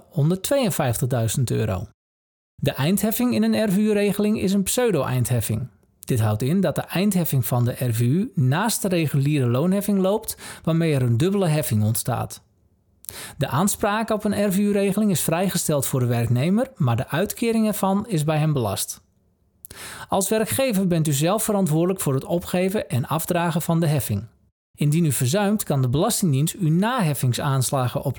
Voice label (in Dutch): Voice over